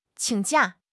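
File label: "qing3 jia4", Chinese; HSK; HSK3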